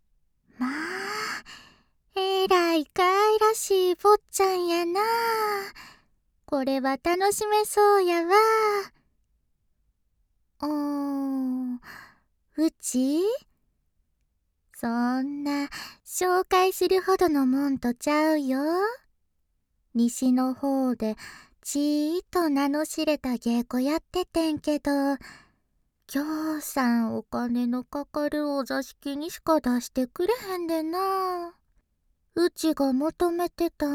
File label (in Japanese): 自己紹介, ASMR